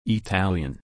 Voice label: Italian